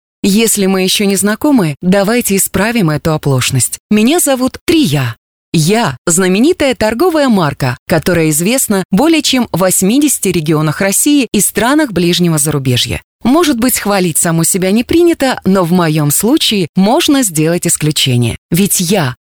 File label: locutora rusa russian voiceover